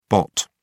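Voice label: "bot", British English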